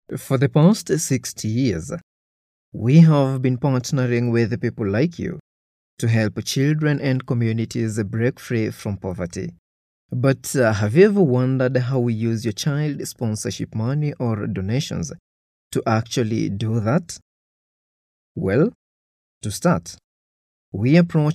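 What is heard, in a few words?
English Afrikaans Male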